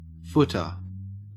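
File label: "footer" Australian English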